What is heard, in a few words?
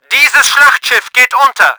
BATTLESHIP DESTROYED, german; Battle Engine Aquila